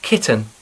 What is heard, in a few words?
"kitten", English; domaci zvirata